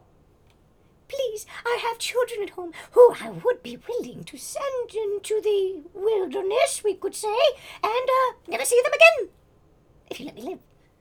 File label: Voices Female Beggings; a dump in the dark